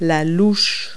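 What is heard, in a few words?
"ladle", English word; Multi-Lingual Talking Picture Dictionary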